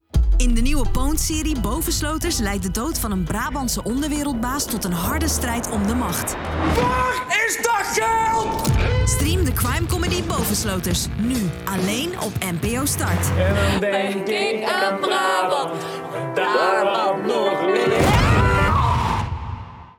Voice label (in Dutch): RADIOSPOT; NPO; BOVENSLOTERS